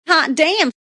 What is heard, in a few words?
Female Says Hot Damn; Talking